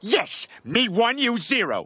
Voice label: "Excellent", Worms speechbanks; Peter Griffin